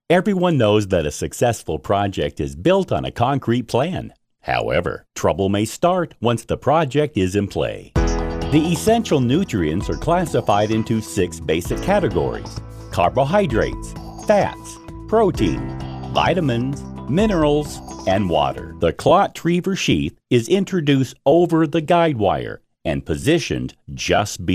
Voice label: E-Learning

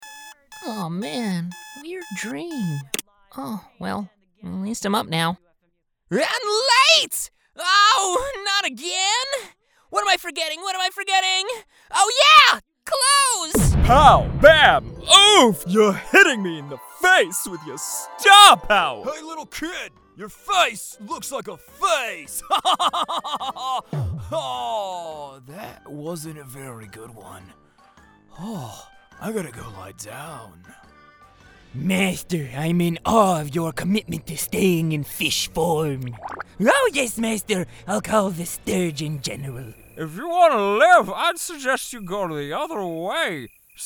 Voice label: Animation

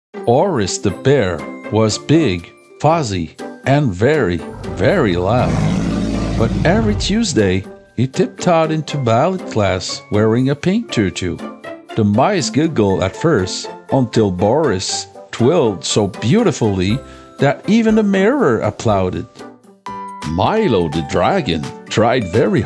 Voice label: Kids Audio Book

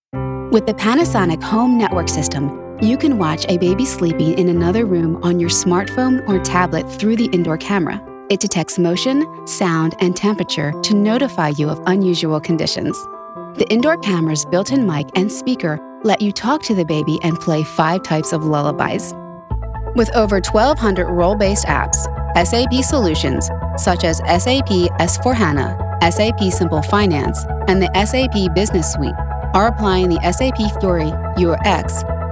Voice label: CORPORATE

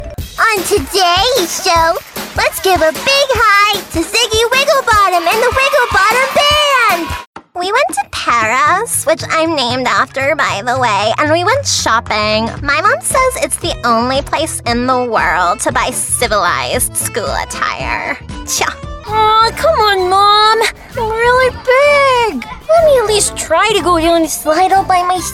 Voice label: Children

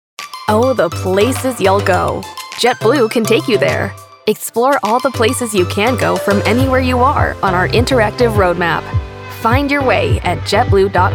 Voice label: Telephony Demo

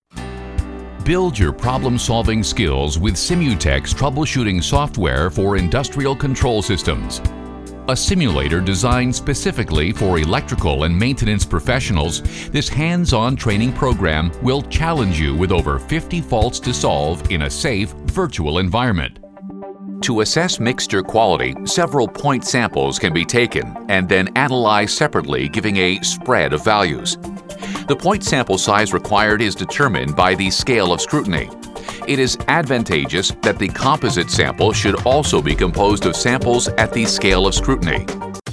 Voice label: eLearning

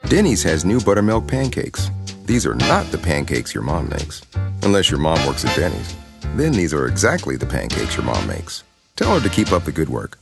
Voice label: Conversational and fun

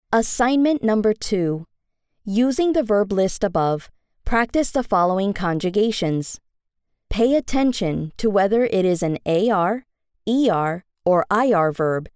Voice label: Spanish E-Learning

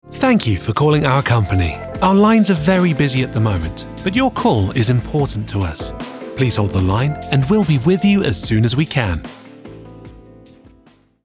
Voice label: IVR

